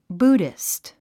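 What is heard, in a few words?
"Buddhist", English word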